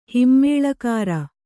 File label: "ಹಿಮ್ಮೇಳಕಾರ", Kannada